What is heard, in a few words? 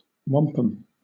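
"wampum", British English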